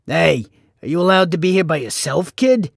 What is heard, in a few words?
guard male; lucius ii the prophecy